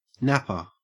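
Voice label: "napper", English, Australian accent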